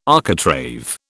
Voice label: "architrave", British